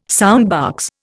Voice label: "soundbox", Indian